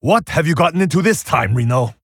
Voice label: Male Pandaren Play

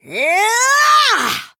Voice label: Female Dwarf Attack

